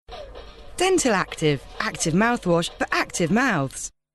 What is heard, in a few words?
hard sell